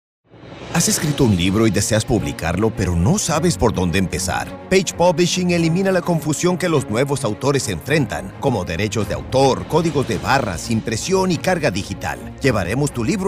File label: spanish; PagePublishing